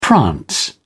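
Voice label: "prance", English, British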